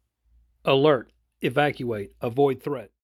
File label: Alert English Only